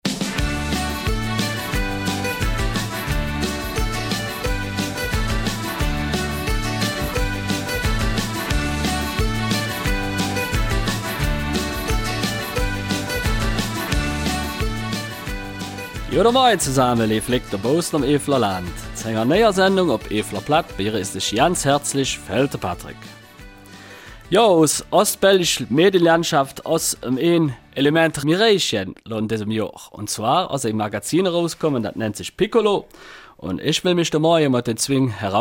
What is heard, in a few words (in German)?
Mundart; Reuländer Lifestyle-Experten